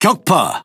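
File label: Speech Pilots Torpedo Destroy, Korean; World Of Warships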